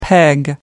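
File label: "peg down", English, British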